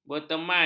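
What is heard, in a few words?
"berteman", Malay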